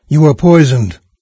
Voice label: poison, VOICES en-US NARRATOR; Fate Undiscovered Realms